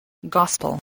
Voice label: "gospel", spelling